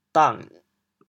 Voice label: "dang6", chaoyang

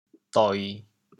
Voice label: "doi1", chaoyang

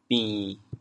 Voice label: "bin1", Teochew, chaoyang

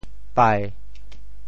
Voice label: "pai7", Teochew

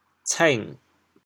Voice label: "cêng3", chaoyang

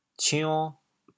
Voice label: "cion2", chaoyang

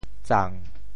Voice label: "tsang7", Teochew; 赠（贈）

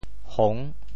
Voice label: "hong6", Teochew; 潮州; 潮州府城POJ; [hoŋ]; 调: 弟

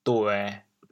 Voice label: "duê1", Teochew, chaoyang; 人坐趖趖，福禍在天頂飛; 福祸相倚; 俗语; 潮阳

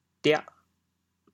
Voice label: "diah8", chaoyang

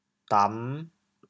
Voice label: "dam5", chaoyang

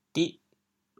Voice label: "dih8", chaoyang

